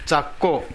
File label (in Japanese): "zakko", minami yamagata